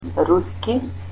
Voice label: "Russian", Macedonian